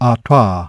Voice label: Più arrotondata; IPA

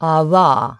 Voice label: Sillabica; IPA

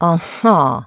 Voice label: Sorda; IPA; diacritici

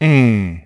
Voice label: Nasalizzata; IPA

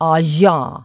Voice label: Fricative alveopalatali; IPA

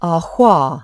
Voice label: Fricativa labiovelare sorda; IPA; altri simboli

